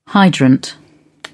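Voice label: "hydrant", British English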